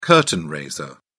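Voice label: "curtain raiser", British English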